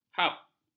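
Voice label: "hab", Malay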